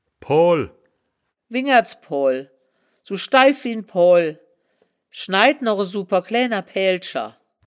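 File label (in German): "poal", Wittlicher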